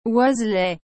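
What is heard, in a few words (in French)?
"oiselet", French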